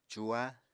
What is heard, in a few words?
"cuha", Apatani